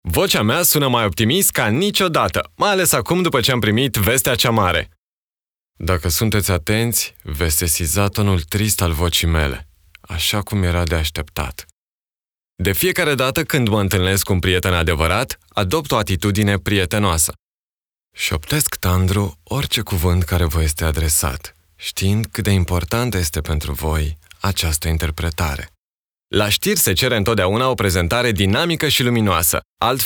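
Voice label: romanian male commercial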